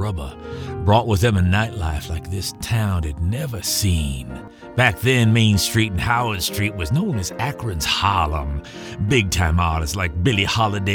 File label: Old Jazz Man